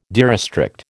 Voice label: "derestrict", American